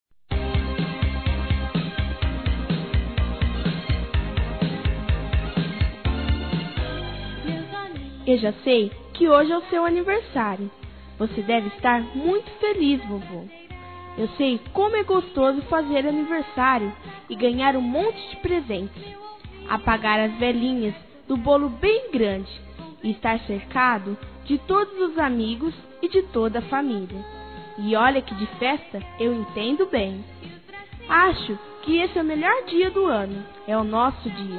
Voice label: AVO INFANTIL F

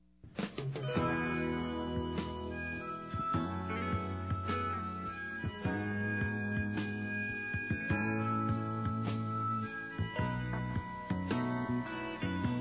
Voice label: CONVITE PARA SAIR M; Telemensagens; Conquistas Cantadas paqueras; masc; Telemensagens 2017

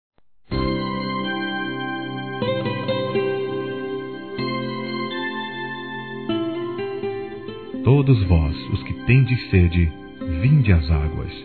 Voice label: Trilha CONVITE A SALVAÇÃO M, Telemensagens Gospel masc

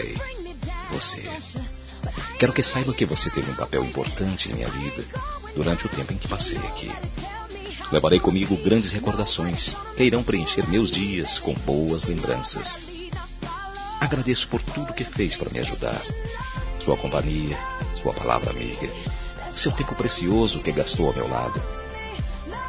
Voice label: Despedida ( Quem Fica ) Masculino; Telemensagens 2017; Despedida Boas Vindas

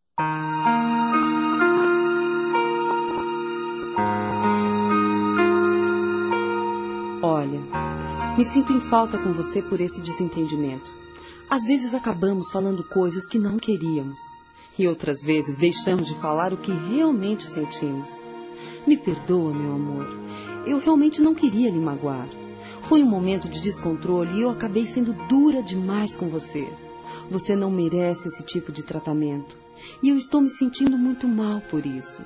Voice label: PEDIDO DE DESCULPAS ROMANTICA F, Telemensagens; fem; Telemensagens 2017

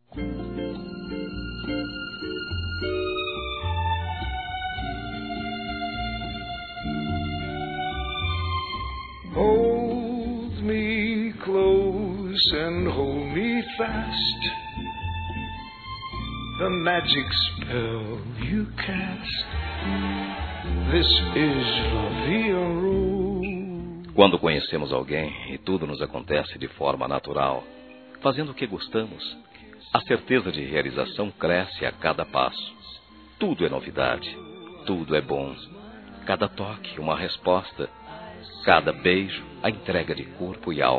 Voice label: Suave Masculino

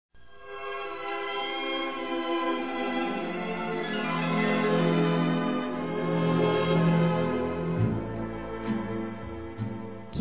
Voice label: Aniversário Esposo Feminino, Telemensagens; Aniversários; Aniv Familiar

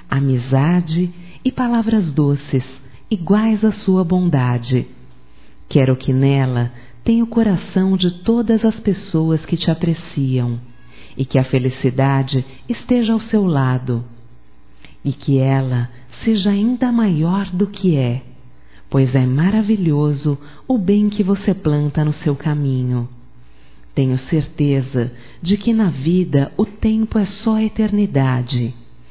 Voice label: ANIV NEUTRO, fem; Aniversarios; Telemensagens; Telemensagens 2017

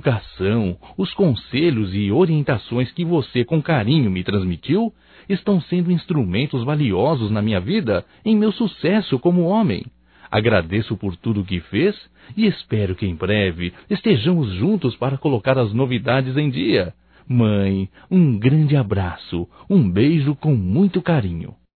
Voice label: SAUDADES MAE, Só Voz, masc; Telemensagens; Telemensagens 2017

